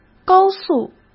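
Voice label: "高速", Mandarin Chinese; chinese-flashcards; hsk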